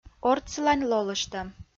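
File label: "ортсылань лолыштӧм", Komi-Zyrian, female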